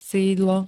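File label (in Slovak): "sídlo", slovenské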